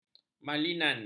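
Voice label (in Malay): "malignan", Malay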